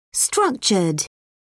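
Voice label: "structured", British English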